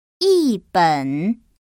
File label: "yiben", Chinese; 중국어 경성 연습